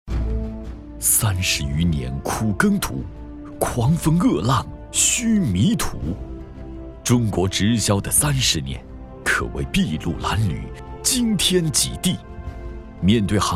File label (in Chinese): 男 大气紧张专题